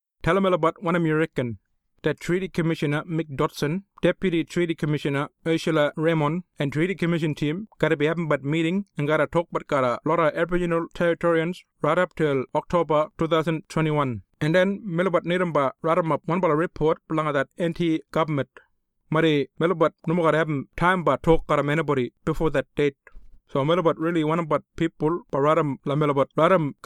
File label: Eastside Kriol